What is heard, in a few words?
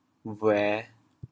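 "bhuê5", Teochew, chaoyang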